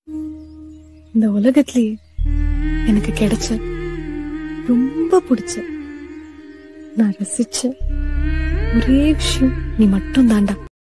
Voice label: emotional song dialogue in tamil

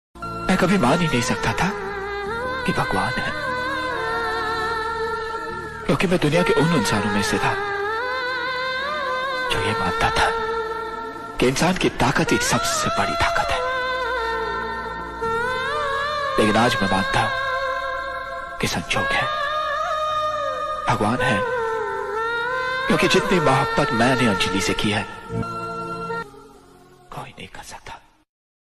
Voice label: emotional dialogue ringtone; sunil shatty; dhadkan ringtone

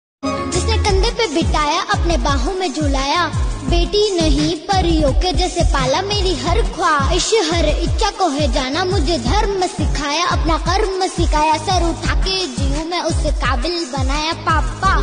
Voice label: dad dialogue; fathers day special

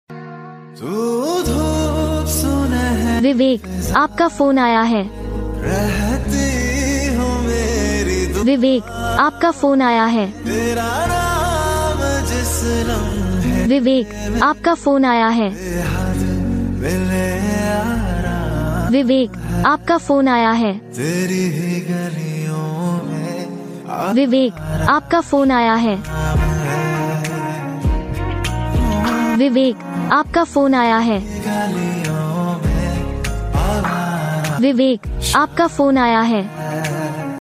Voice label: Vivek name ringtone, Hindi

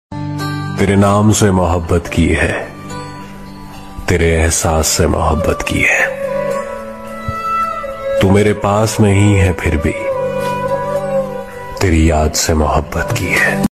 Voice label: sad shayari; teri yaad sad status; very sad status; mood off status

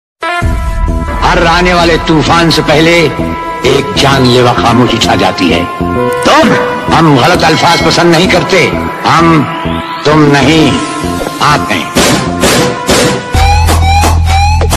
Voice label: dialogue ringtone khatarnak dialogue; rajkumar

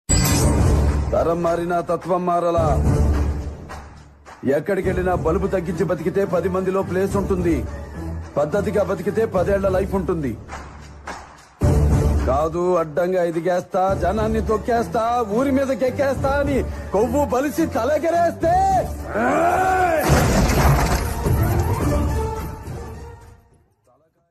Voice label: emotional powerful dialogue; balakrishna

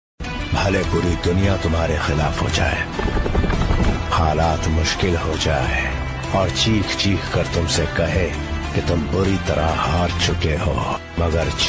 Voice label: south movie ka ringtone dialogue ringtone

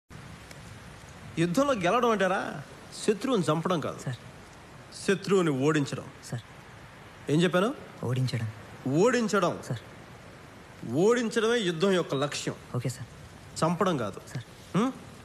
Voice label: dialogue, telugu; pawan kalyan

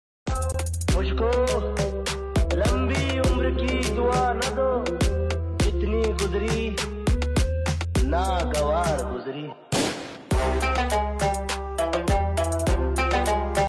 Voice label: dialogue ringtone; govinda

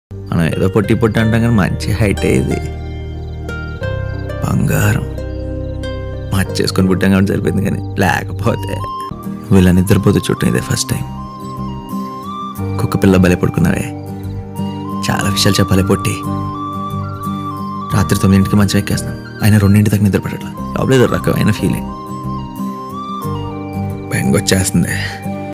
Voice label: nenu local dialogues telugu love dialogues

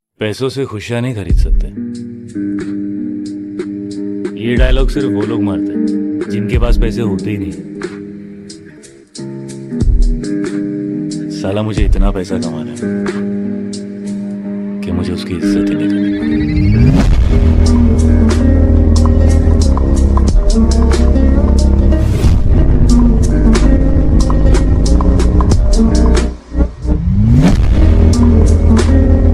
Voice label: dialogue bgm; money is always farzi

